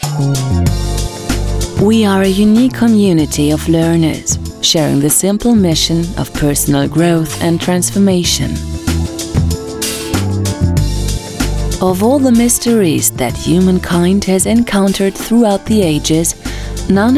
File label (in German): englisch